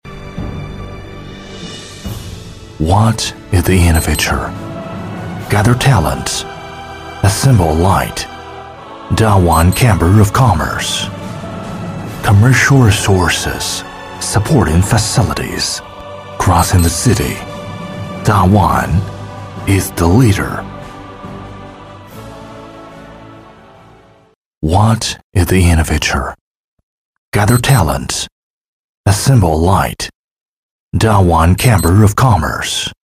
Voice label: 英语男

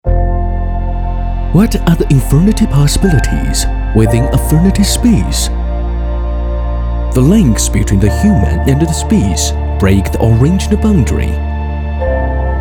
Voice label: 英语男, 英语 走心旁白

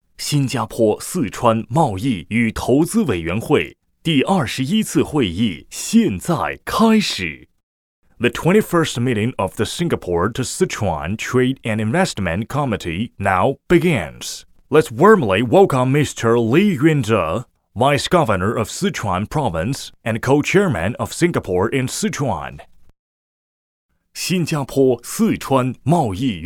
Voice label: 英语男, 中英文配音

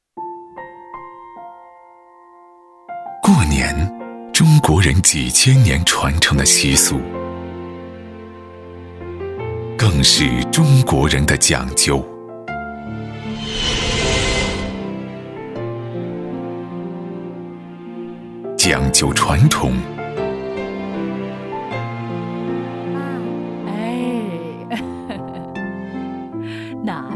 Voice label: 国语男 广告 酒类; 中国年喝习酒

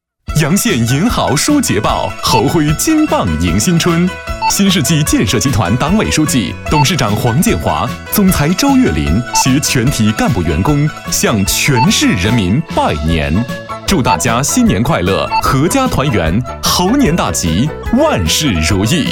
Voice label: 国语男 新世纪拜年广告 样音背景

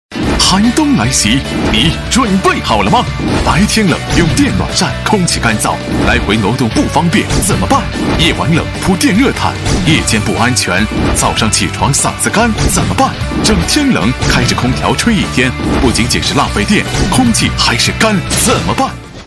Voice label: 国语男 电购 电暖; 电购大力丸

